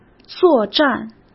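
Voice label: "作战", Mandarin Chinese; chinese-flashcards; hsk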